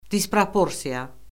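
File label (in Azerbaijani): "disproporsiya", Azerbaijani; Orfoqrafiya Lüğəti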